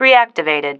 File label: "reactivated", female